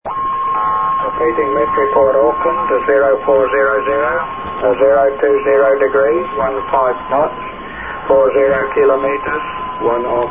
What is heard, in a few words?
auckland volmet usb; 1982; rainer brannolte